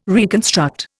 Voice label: "reconstruct", Indian